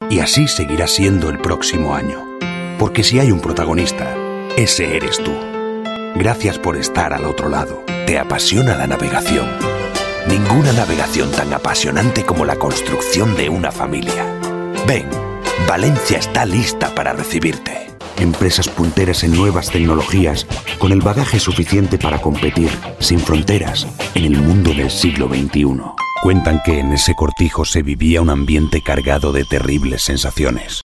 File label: Euro Spanish Corporate